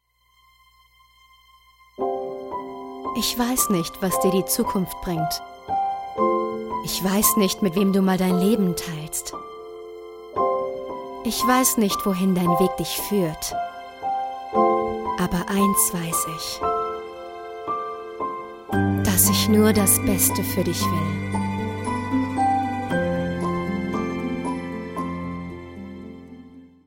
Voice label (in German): werbung baby; LOFT VOICES